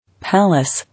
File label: "Palace", English